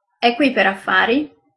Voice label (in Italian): "qui per affari", female